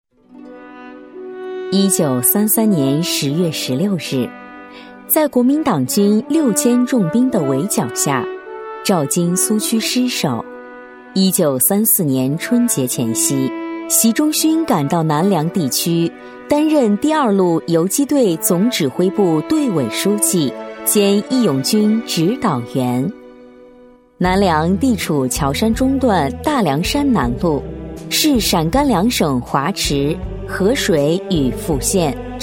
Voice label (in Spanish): Locutor femenino chino